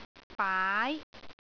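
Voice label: "baai2", Cantonese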